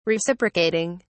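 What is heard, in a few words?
"reciprocating", British English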